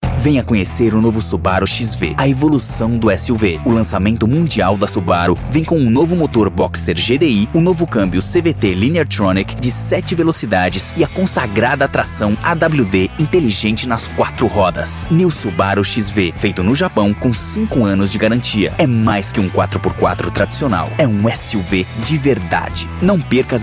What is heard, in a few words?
Spot; Subaru New XV